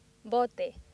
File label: "bote", accent; laboratori fonetica; practiques accent